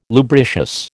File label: "lubricious", American